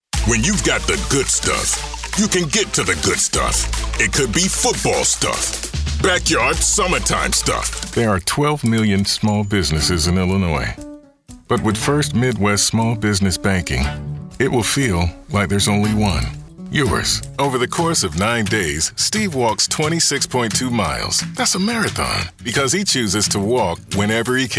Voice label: Commercial